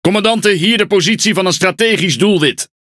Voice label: Speech Quick Commands Enemy Ship, NL; World Of Warships